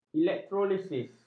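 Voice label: "elektrolisis", Malay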